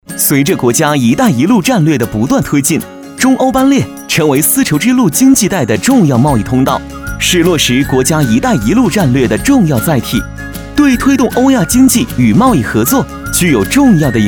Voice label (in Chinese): 男 一带一路 提速 中欧班列